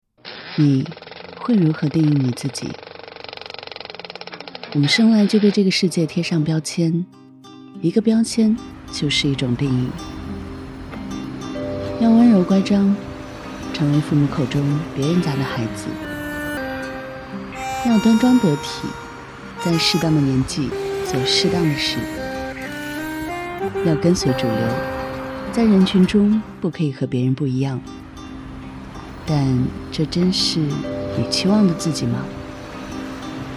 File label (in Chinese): 女, 广告 优雅知性